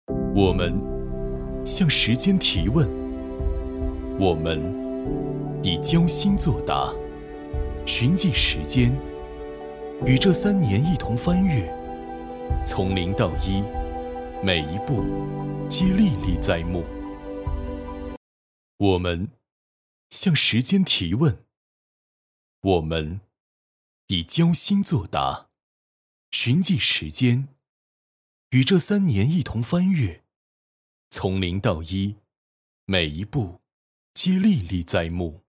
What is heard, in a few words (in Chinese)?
男 广告; 我们-向时间提问